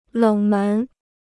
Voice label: "冷门", Chinese

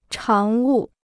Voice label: "常务", Chinese

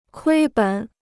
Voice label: "亏本", Chinese

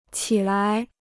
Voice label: "起来", Chinese

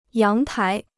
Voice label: "阳台", Chinese

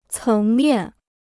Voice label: "层面", Chinese

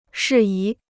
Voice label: "事宜", Chinese

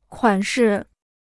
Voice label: "款式", Chinese

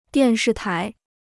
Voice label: "电视台", Chinese

